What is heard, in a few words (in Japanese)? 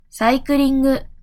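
"サイクリング", Japanese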